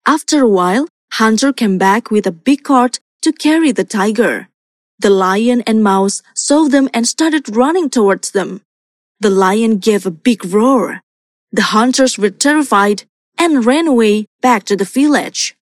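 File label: character English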